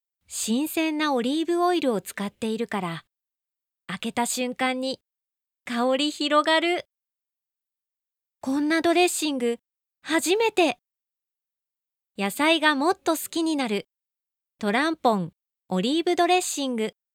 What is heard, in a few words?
female